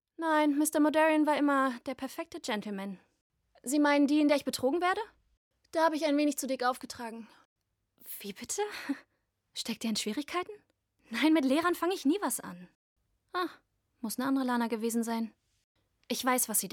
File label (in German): Synchron Rolle; Elsbeth; Lana